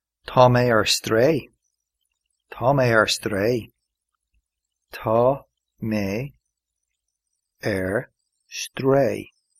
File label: i am lost irish